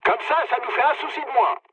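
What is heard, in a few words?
player killed enemy, mood low, French; War Thunder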